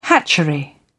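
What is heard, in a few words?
"hatchery", British English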